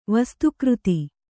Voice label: "ವಸ್ತುಕೃತಿ", Kannada

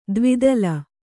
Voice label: "ದ್ವಿದಲ", Kannada

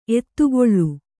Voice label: "ಎತ್ತುಗಲ್", Kannada

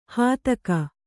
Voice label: "ಹೆತ್ತೇಗ", Kannada

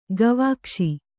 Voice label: "ಗವಾಕ್ಷಿ", Kannada

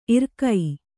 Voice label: "ಇರಿಕೆ", Kannada

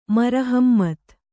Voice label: "ಮರಹಮ್ಮತ್", kannada

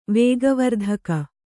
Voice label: "ವೇಗವರ್ಧಕ", Kannada

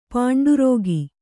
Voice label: "ಪೌಂಡರೀಕ", Kannada

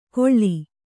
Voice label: "ಕೊಳ್ಳಿ", Kannada

